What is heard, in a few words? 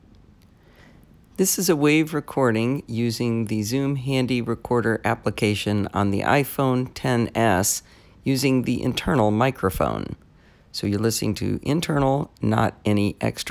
alone internal mic